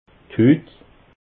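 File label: "hut", Alsatian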